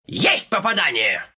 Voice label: homing missile impact, ru; Dota 2; Gyro